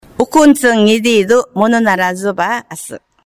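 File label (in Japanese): Miyako Irabu, しまくとぅば; 大道ウクンツン ディードゥ; ムヌナラス゚ ゾバー アス; 宮古の言葉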